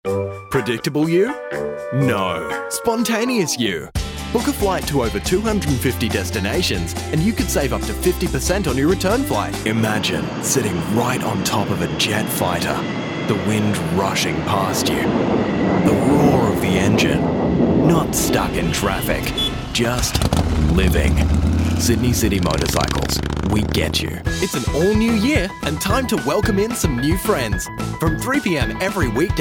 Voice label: Commercial

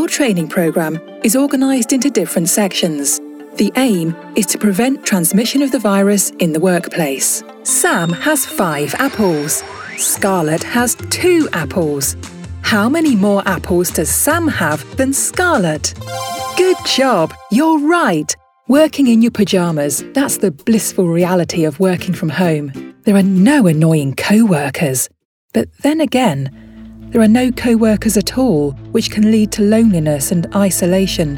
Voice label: E Learning

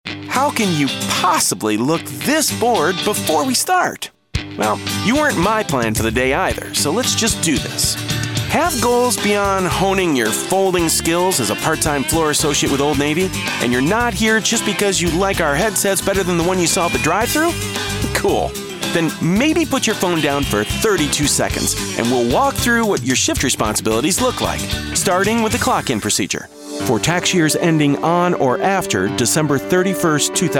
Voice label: ELearning

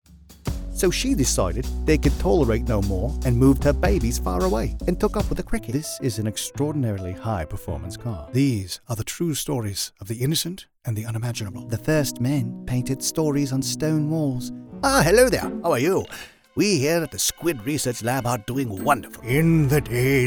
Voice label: British

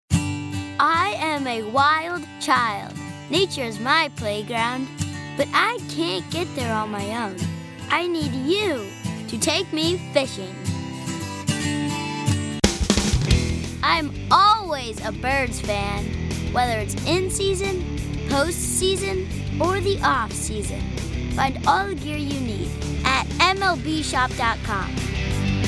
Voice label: Commercial; Impressive Talent

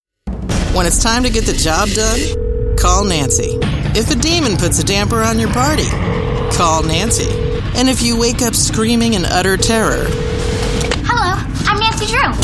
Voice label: Promo

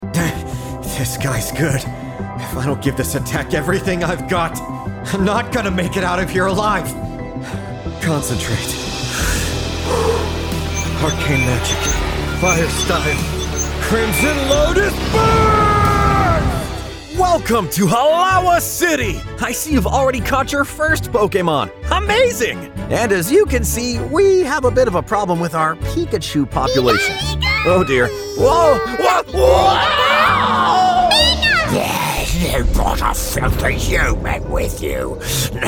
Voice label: Character

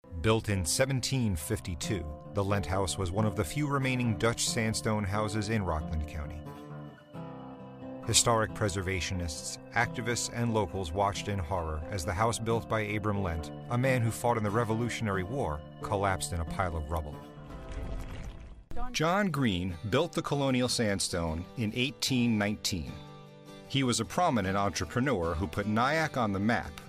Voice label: Documentary

